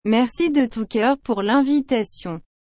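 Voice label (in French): "Merci de tout cœur pour linvitation", French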